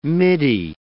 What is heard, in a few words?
"midi", English